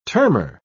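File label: "termer", British English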